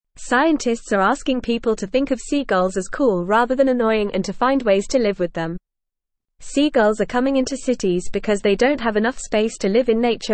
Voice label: English FAST Reading

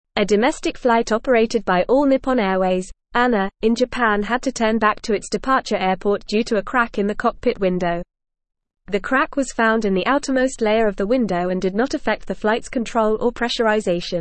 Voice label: English Newsroom Advanced FAST Reading; Cracked Cockpit Window Forces ANA Flight to Turn Back

